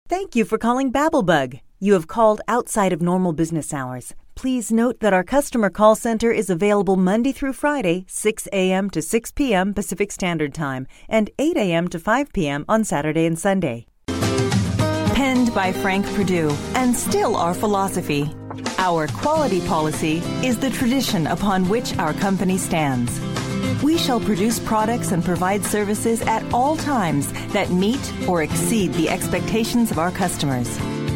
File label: IVR phone Messaging